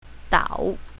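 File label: "dao3", pinyin, south, female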